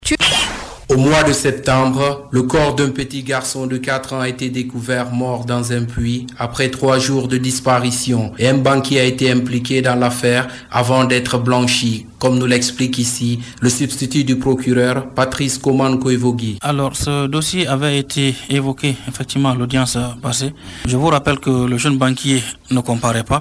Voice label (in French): Reportage Radio; Radio Espace Foutah